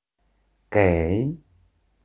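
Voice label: "gei3", Mandarin Chinese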